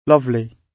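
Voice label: "lovely", British English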